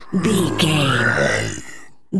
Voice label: zombie voice brains